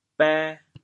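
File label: "bê2", Teochew, chaoyang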